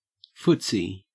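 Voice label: "footsie" Australian English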